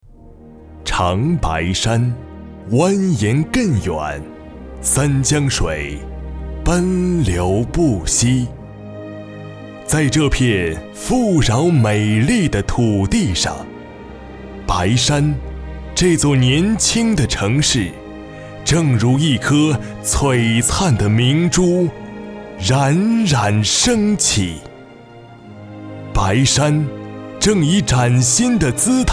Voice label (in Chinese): 大气宣传样音